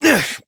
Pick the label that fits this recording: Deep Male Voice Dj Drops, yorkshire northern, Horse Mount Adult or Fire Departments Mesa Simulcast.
Horse Mount Adult